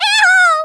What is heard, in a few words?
missed, Speech Portuguese; Worms Armageddon